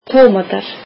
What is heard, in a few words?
icelandic tomatoes